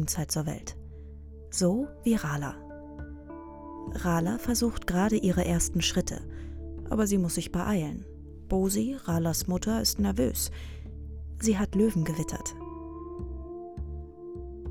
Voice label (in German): Dokumentation Elefanten atmosphaerisch